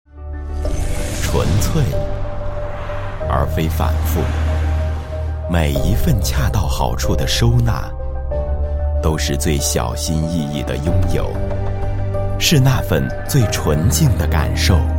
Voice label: 男 广告 高端磁性, 国语男声配音; 卡萨帝

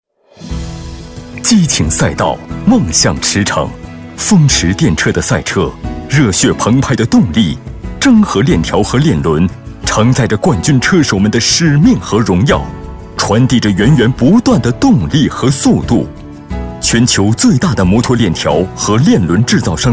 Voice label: 男 专题 科技感 摩托链条

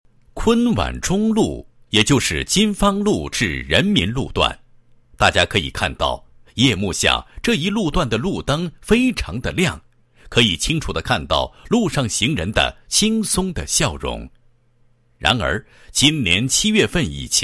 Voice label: 国语男声配音 工作纪实; 某市路灯市政工程处支部党建工作纪实

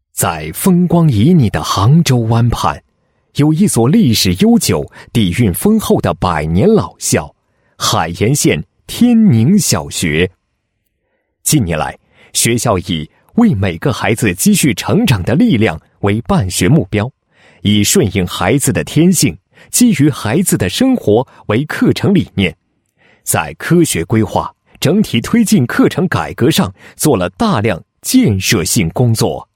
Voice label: 男 解说词 宣传片解说词; 课程改革

